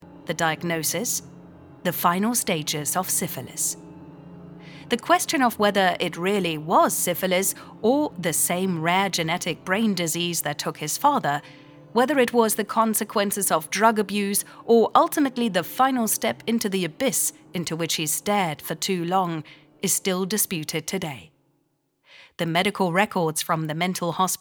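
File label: British English audio guide; english-uk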